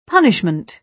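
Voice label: "punishment", British English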